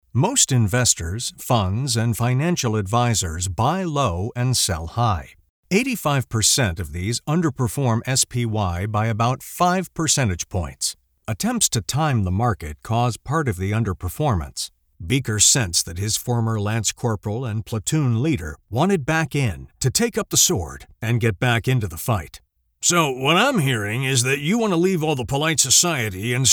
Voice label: Audiobook Various Styles; Audiobook Demo